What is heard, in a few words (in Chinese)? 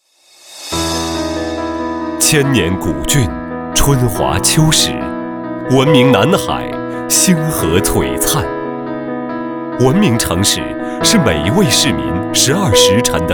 男 专题 南海